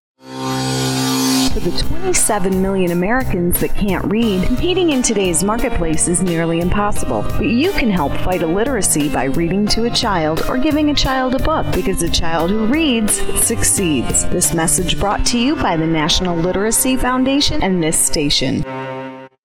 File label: female PSA